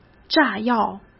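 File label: "炸药" Mandarin Chinese; hsk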